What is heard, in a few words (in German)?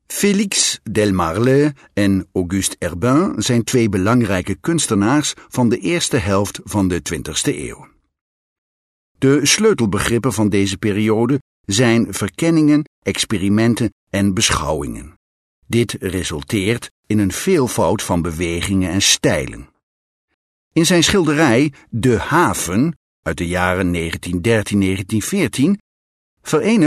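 Dutch, Fremdtake Neutral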